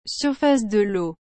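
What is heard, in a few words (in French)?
"surface de l’eau", French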